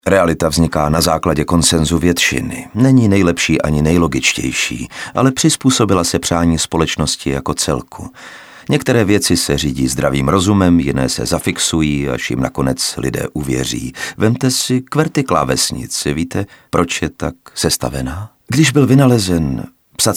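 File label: Male Czech drama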